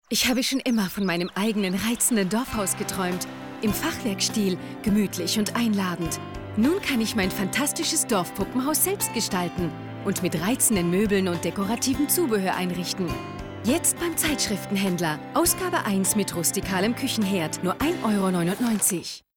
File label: female german doll comercial